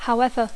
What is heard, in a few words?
"however", English